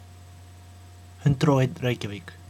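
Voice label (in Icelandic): "101 reykjavík", Icelandic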